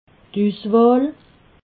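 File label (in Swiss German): "uswahl", Alsatian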